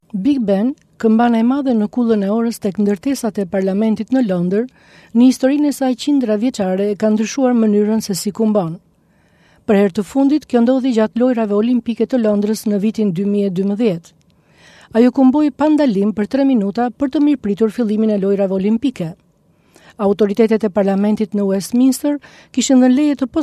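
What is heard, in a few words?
Albanian; Albanian, Male, 20s-30s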